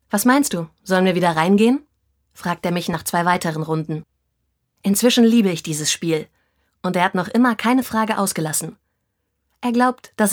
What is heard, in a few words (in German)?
Hörbuch; Nothing Less